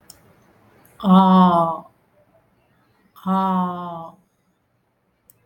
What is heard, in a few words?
ä (the long ä, as in 'alms'), Tamil, vowels